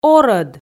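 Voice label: "орӧд", Komi, female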